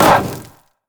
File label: bear stance roar; LoL; udyr